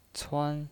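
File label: "cuan", Mandarin Chinese pinyin voice, first tone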